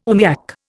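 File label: "oomiak", Indian English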